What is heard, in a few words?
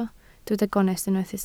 "gonest", Welsh